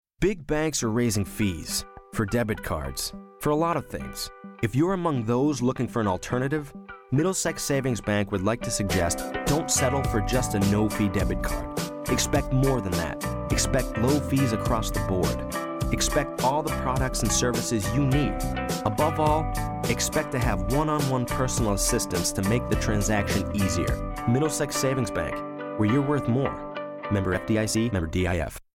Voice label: US commercial